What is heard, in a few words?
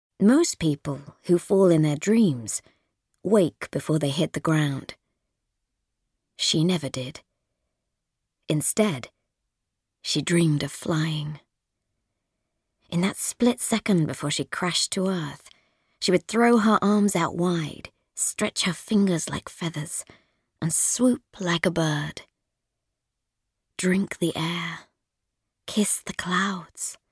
Young Adult narration